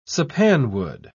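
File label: "sappanwood", British English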